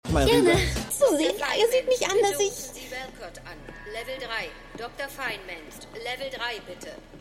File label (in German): Durchsage; Kellnerin; Eureka